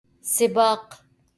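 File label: "سباق" Arabic; race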